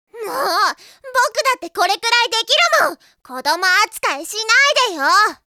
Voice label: boy